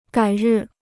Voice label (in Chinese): "改日", Chinese